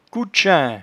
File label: "coutchaint", patois jura